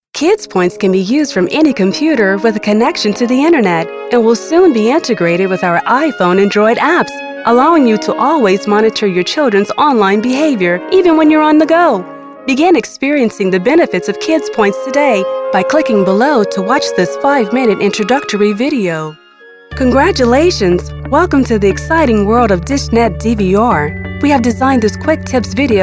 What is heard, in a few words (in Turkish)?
Amerikan Ingilizcesi Kadin, Kadın Ses